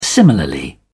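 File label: "similarly", British English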